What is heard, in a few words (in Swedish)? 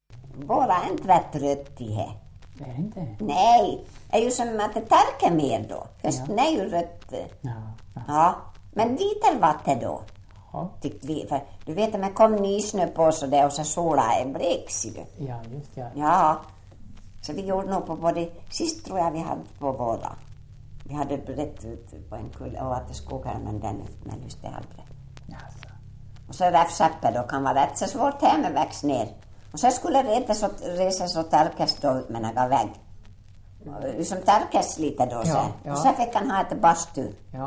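Ål Dalarna, dialekter; Folkminnen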